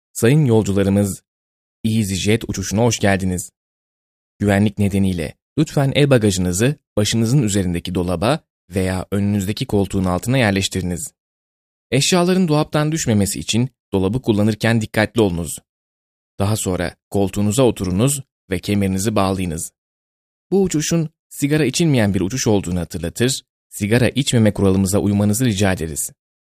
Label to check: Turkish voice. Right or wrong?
Right